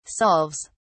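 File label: "solves", English, British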